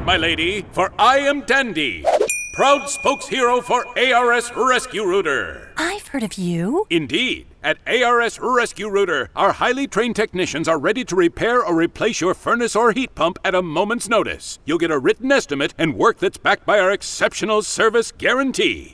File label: Frosty Mom character dialogue